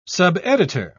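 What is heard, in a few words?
"subeditor", British English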